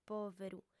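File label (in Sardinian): "pòveru", female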